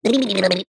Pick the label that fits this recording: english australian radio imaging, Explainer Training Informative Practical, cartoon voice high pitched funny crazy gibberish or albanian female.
cartoon voice high pitched funny crazy gibberish